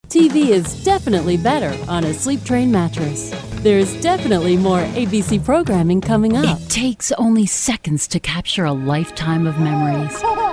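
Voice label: US, commercial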